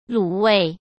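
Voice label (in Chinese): "卤味", Mandarin Chinese; hsk; chinese-flashcards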